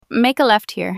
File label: Female Make A Left